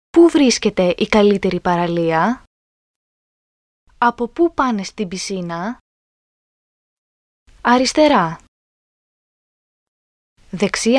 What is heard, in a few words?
Greek